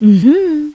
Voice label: woman hi